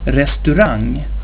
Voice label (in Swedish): "restaura", swedish; plaatsen